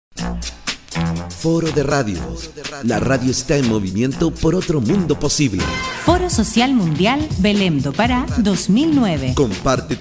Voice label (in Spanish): frase castellano